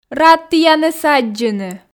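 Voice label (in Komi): рад тіянӧс аддзыны, Komi, female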